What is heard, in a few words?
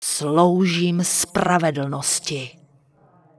Human Female Congratulations; wowpreklad; czwow; HumanVocalFemale